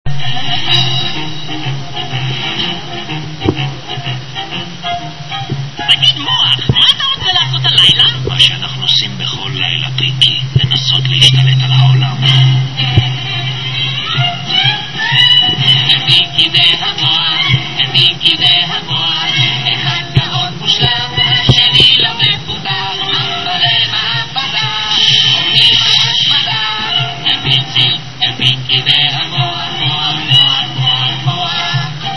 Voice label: Hebrew